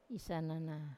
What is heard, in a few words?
"isanana", Wamesa; I stir-fry